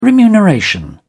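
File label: "remuneration", English, British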